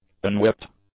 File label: "unwhipped", english; adjectives